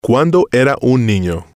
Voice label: "cuando Era Un Nino", spanish

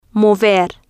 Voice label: "mover", Spanish

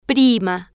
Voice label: "prima", Spanish

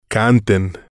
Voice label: "canten", spanish

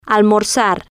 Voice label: "almorzar", Spanish

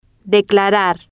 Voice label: "declarar", Spanish

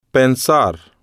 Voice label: "pensar", Spanish

